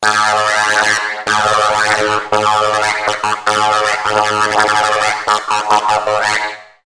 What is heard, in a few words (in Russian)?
robot elektronnaya rech; film zvukovoj effekt multfilm zvukovoj effekt